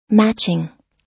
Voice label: "matching", us